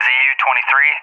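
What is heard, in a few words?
"ZU-23", English, USA; AirDefence; DCS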